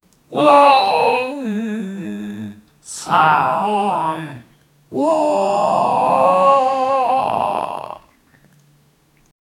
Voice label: uh oh